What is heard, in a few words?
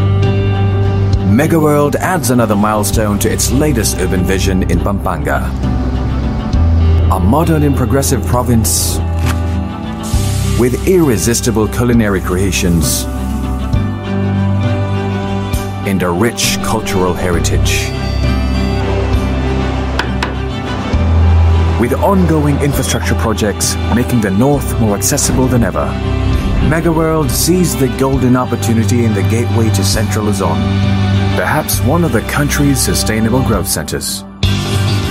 Asian English capital township; Megaworld